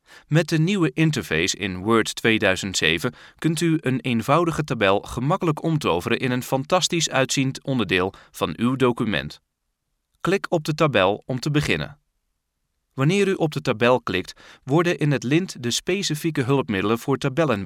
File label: Dutch